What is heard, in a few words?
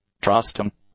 "trusting", English; adjectives